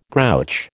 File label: "grouch", American